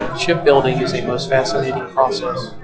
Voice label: Enhanced Speech Babble Noise LogMMSE 0dB SNR

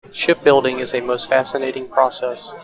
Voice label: Enhanced Speech Babble Noise

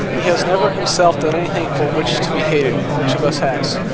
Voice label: Noisy Speech Babble Noise LogMMSE 0dB SNR

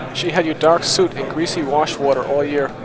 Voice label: Noisy Speech Babble Noise

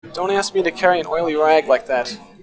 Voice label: Enhanced Speech Babble Noise

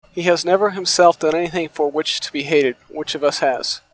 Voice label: Enhanced Speech F16 Noise

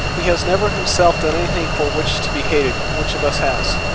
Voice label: Noisy Speech F16 Noise

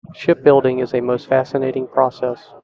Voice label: Enhanced Speech Babble Noise SNR 0 dB

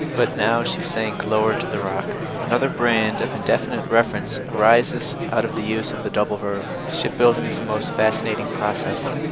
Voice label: babble 5dB noisy

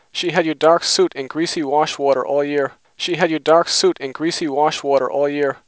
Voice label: Clean Dry Speech

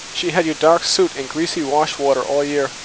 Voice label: Noisy Speech White Noise

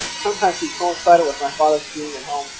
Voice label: Enhanced Speech White Noise LogMMSE 0dB SNR

